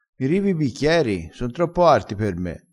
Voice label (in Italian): "riva", dialettale, crespinese